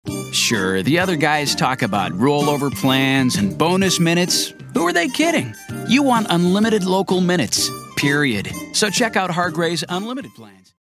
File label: younger